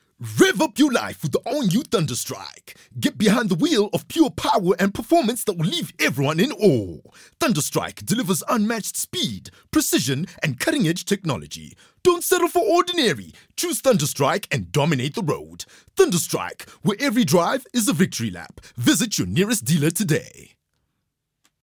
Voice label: HARD SELL